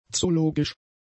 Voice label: "zoologisch", German; adjectives

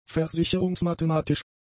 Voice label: "versicherungsmathematisch", German; adjectives

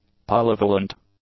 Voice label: "polyvalent", english; adjectives

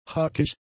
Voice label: "hakig", German; adjectives

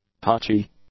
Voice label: "touchy", English; adjectives

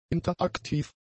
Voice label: "interaktiv", German; adjectives

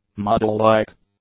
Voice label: "model-like", English; adjectives

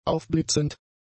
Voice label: "aufblitzend", German; adjectives

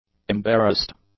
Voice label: "embarrassed", English; adjectives

